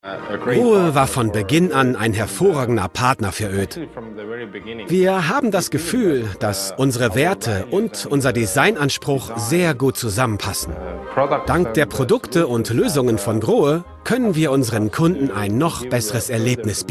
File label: Commercial Voiceover